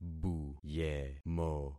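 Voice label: "buyemo", concatenated syllables; Affixing experiment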